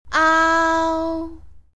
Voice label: "aau", Cantonese jyutping